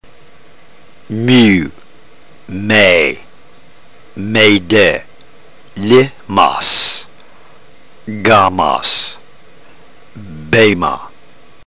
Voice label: drill, ntgreek